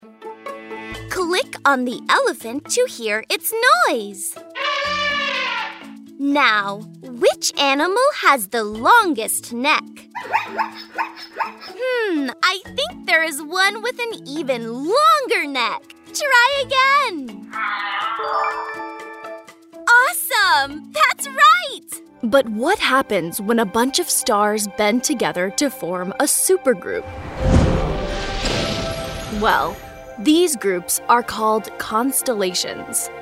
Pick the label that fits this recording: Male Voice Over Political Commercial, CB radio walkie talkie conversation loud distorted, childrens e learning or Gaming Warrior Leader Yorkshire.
childrens e learning